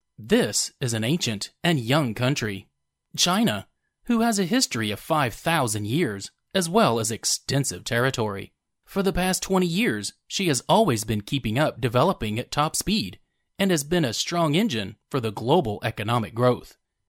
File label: english american documentaries